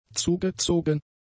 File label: "zugezogen", German; adjectives